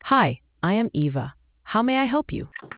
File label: conversation